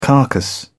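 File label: "carcass", British English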